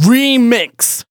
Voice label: phrase male rap; Vengeance Samples